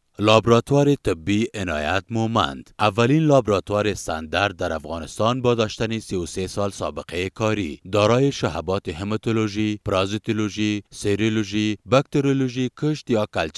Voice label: Dari, Male